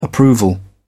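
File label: "approval", British English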